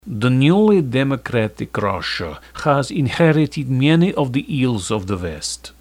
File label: russian